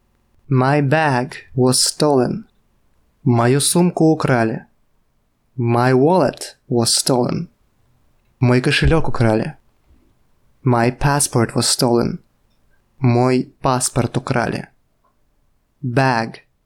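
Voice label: Russian, My bag was stolen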